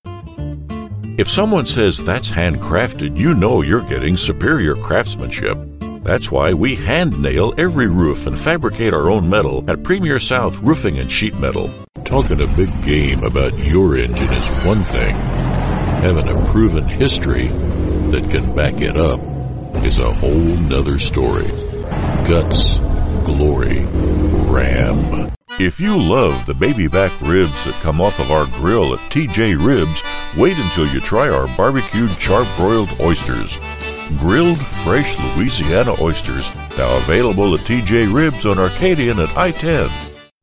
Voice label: Commercials

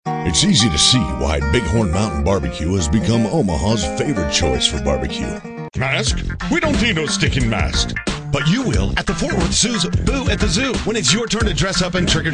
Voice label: Character

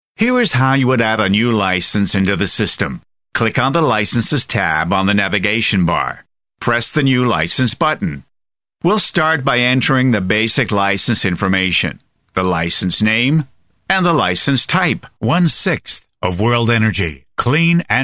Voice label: English US M Narrative

